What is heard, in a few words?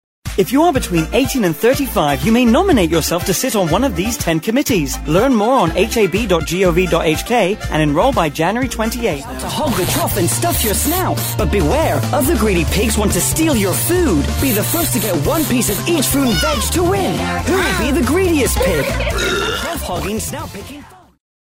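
British male TV commercial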